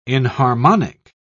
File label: "inharmonic", pronounce